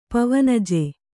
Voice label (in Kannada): "ಪವನಜ", Kannada